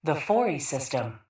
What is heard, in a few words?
PA System; Fori Prime